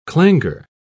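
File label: "clangour", British English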